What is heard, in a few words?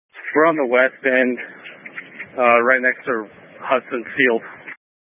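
Incident Tactical 2, Scanner audio recording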